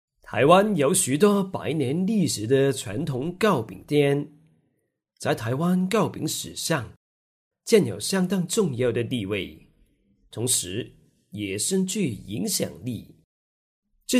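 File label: Chinese Documentary Mandarin; GoLocalise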